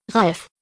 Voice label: "Ralf", deutsch jungennamen

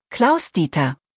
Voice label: "Klaus-Dieter", deutsch, jungennamen

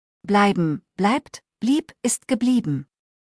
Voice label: "bleiben", Deutsch; verben kurzliste

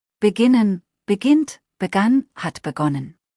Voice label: "beginnen", Deutsch; verben kurzliste

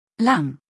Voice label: "Lang", deutsch

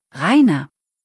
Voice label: "Reiner", deutsch, jungennamen

